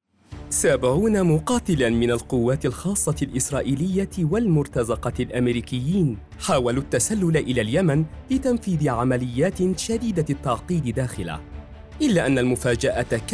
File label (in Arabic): وثائقى تدريب; متنفس